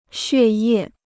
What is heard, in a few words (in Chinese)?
"血液", Chinese